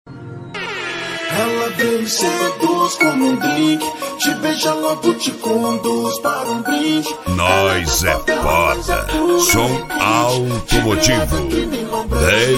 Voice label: rap; som automotivo